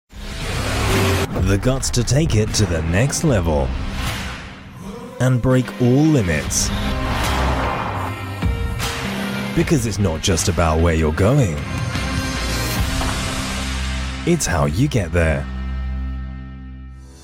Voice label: 大气激情

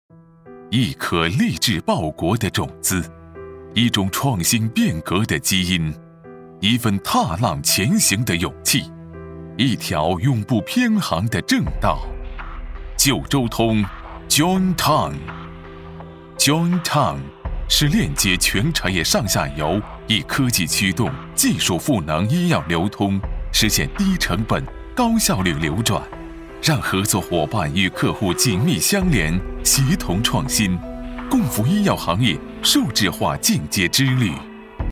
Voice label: 男 宣传 广告宣传; 九州通

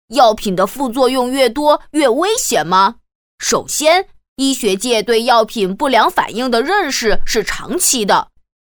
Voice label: 女 男童 药品介绍

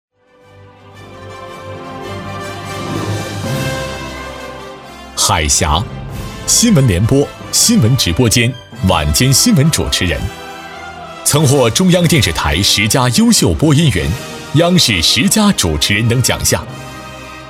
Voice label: 男,颁奖; 央视; 2022央视十佳主持人颁奖配音

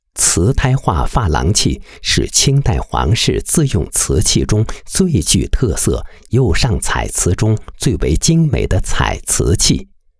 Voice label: 男; 臻宝

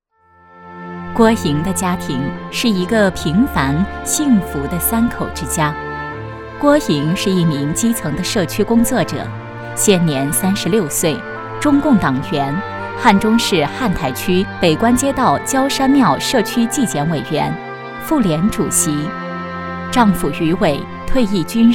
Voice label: 女 专题 人物 五好家庭典范

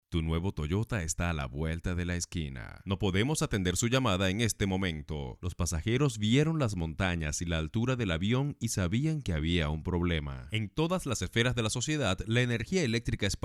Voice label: 西班牙语男 多风格合辑

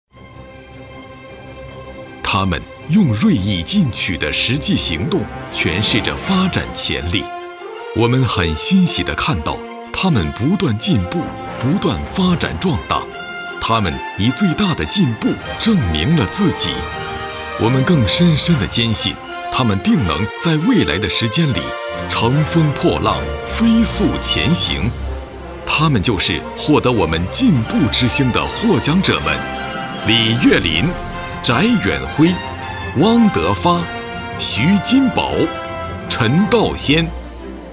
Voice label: 男 颁奖词 个人类 自豪坚定 积极沉稳

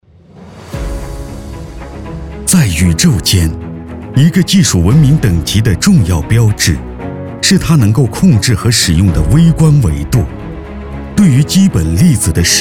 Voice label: 男 科技类专题

